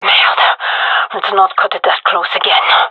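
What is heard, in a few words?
close call, gendarmerie female; vo; agents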